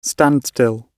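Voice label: "standstill", British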